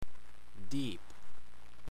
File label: "Dieb", englisc